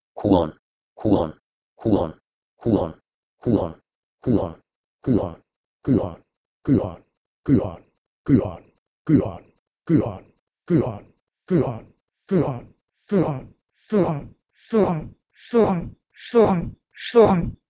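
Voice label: Proto-Indo-European kuon to Armenian shun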